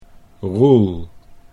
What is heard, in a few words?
"Roel" Dutch